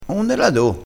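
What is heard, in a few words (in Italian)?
"do", vocabolario dialettale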